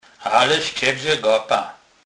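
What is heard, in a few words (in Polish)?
"gapa", Kurpie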